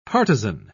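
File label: "partizan", British English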